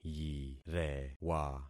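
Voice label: "yirewa", concatenated syllables; Affixing experiment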